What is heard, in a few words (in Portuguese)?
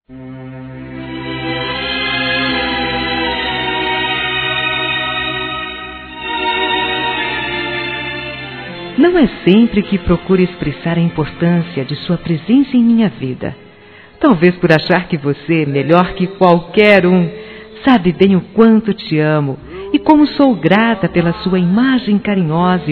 Dia mulher para avó fem.; Telemensagens; Dia Internacional da Mulher; Telemensagens 2017